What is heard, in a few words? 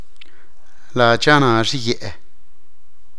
I live in Lemon Grove, teotitlan